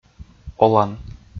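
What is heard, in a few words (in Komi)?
"олан", Komi, male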